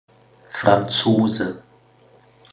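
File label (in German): "franzose", German; pronunciation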